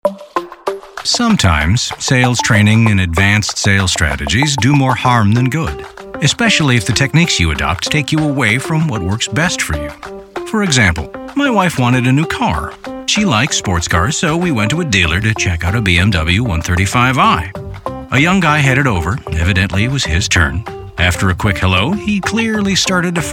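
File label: narration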